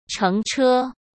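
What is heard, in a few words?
"乘车", Mandarin Chinese; chinese-flashcards; hsk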